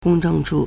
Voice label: "gong1 zheng4 chu4", Chinese

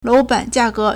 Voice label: "lou2ban3jia4ge2", Chinese

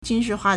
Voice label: "jun1shi4hua4", Chinese

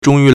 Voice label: "zhong1 yu2", Chinese

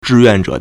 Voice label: "zhi4 yuan4 zhe3", Chinese

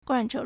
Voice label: "guan4che4", Chinese

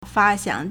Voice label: "fa1xian2", Chinese

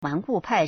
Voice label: "wan4hu1pai4", Chinese

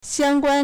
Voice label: "xiang1guan1", Chinese

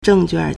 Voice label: "zheng4quan4", Chinese

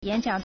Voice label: "yan3jiang3", Chinese

